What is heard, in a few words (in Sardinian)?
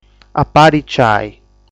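"Apariciai", Sardinian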